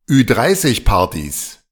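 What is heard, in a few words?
"Ü-30-Partys", German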